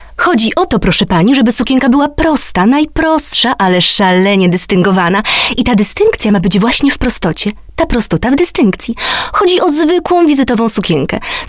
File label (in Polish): Lektorka; Studio Nonagram